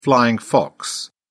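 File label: "flying fox", British English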